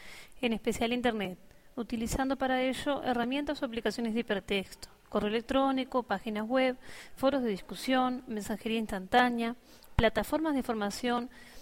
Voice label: e-learning